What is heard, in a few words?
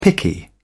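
"picky", British English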